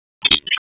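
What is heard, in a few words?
Raleigh Police Northeast District Dispatch, p25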